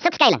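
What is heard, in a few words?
"Take cover", Afrikaans accent; Speech; International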